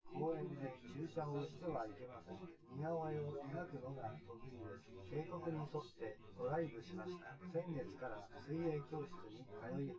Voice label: male synth conv